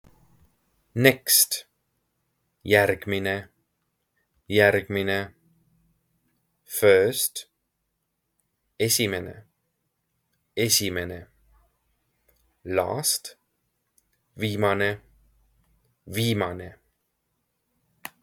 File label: Next first last, estonian; the talking estonian phrasebook